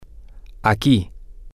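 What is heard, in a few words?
over around here, Spanish, male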